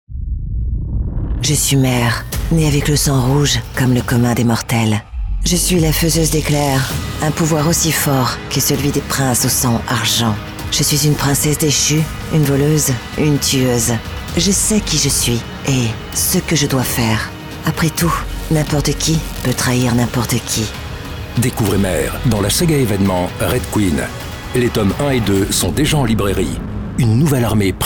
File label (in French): Promo